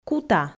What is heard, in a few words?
"кута", Komi